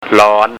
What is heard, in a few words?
"loon", Thai